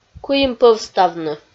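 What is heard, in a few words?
"куимпӧвставны", Komi, female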